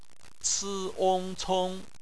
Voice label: "cong", Chinese; BPMF spelling; phonetics

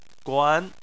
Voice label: "guan2", Chinese Phonetics

